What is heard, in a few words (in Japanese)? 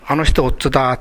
"ottu", minami yamagata